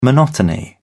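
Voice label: "monotony", British English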